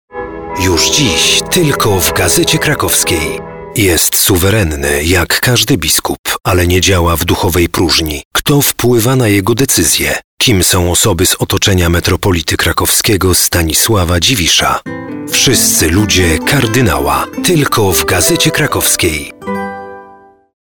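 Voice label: Polish, male, announcement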